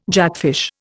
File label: "jackfish", Indian